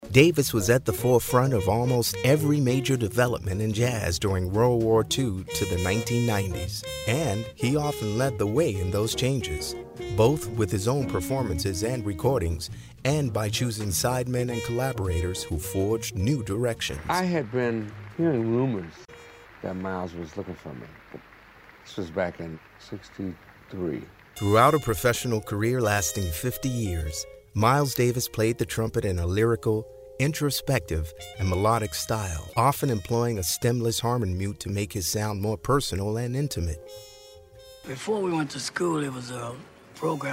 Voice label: narration